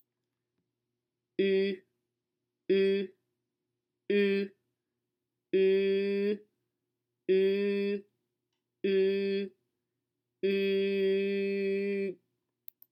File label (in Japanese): 中国語発音 母音「ü」